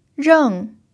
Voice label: "reng4", pinyin

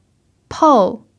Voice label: "pou4", mandarin pinyin

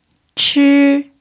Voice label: "chi1", Mandarin pinyin

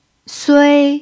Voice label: "sui1", pinyin

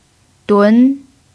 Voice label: "dun2", Mandarin pinyin

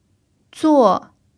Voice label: "zuo4", Mandarin pinyin

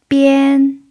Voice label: "bian1", Mandarin pinyin

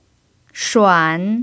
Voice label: "shuan3", pinyin

